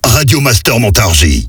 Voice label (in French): Voix off Accap medium; Montargis